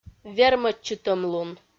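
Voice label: "вермӧдчытӧмлун", Komi, female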